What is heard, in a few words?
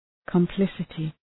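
"complicity", English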